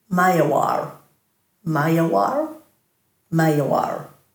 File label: "mayawar", Dhurga